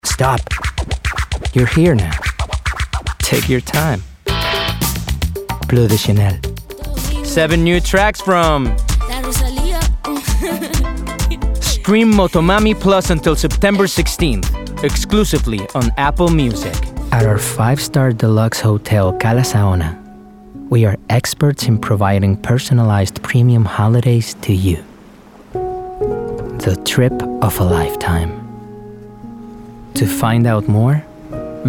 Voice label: Commercial, Bright, Upbeat, Conversational, English; English, Spanish